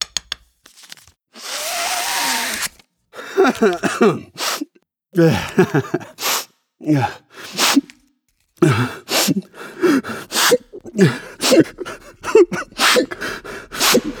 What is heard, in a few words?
Anti Cocaine Driving Campaign Sniff RADIO